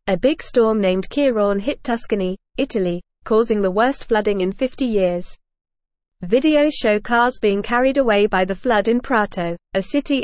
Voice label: English Lower Intermediate FAST Reading; Big Storm Causes Flooding in Italy Help Arrives